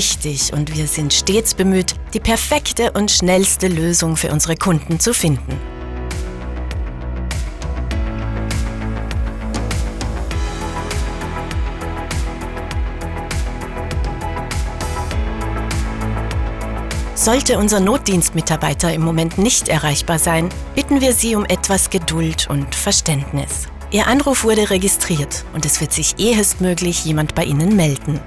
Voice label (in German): Notfall, telefonansagen; Swoboda Heizung Oetz; telefonansagen sonstige